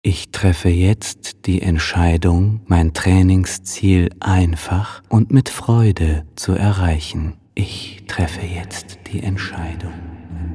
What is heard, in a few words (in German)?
Hypnose Stimme